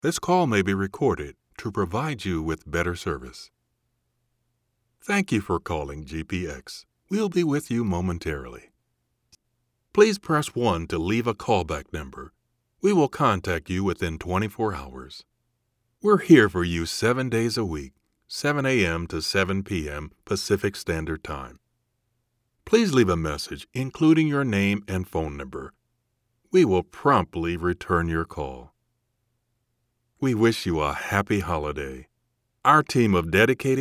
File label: Telephony IVR